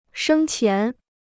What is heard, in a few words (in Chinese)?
"生前", Chinese